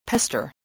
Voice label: "pester", voice, English

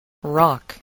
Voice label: "rock", voice spelling

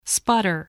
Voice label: "sputter", voice

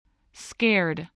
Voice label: "scared", english spelling

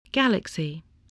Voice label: "galaxy", voice, English

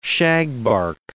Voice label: "shagbark", English

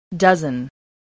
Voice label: "dozen", spelling

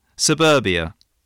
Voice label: "suburbia", spelling

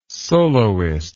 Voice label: "soloist", english

